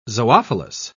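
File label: "zoophilous", British English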